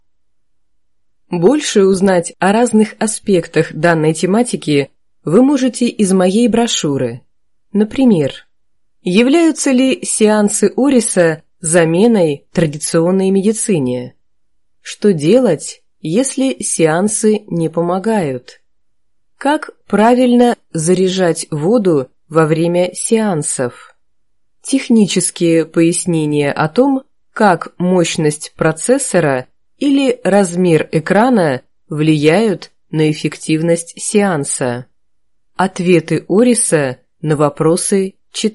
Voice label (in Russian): reklam vstavka stereo